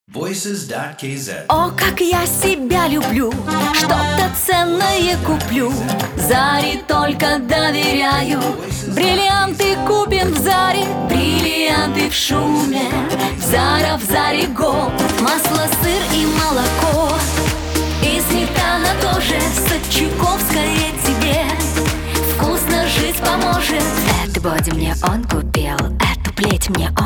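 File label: Russian vocal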